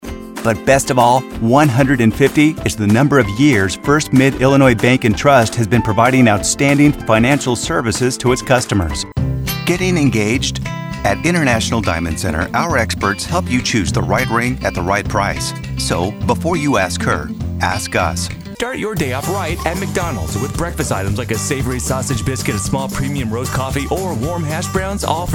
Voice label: TV COMMERCIAL